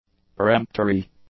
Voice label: "peremptory", english; adjectives